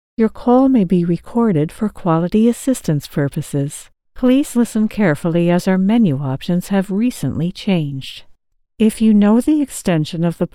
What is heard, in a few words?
IVR Phone Warm Soft